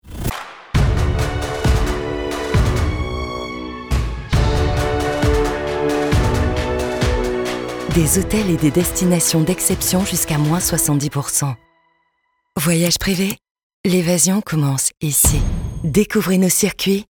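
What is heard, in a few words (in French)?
Pub TV, Voix Off Femme; Voyage Prive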